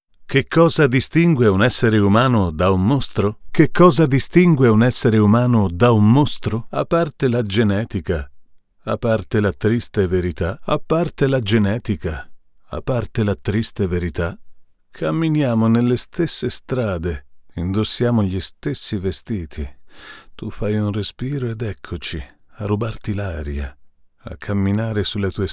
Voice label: Rode NTK MOD Stock VS Original Mullard